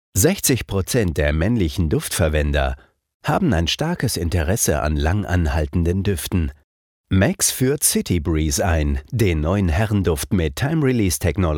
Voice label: german corporate